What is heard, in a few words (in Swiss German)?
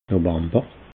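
"novamber", Alsatian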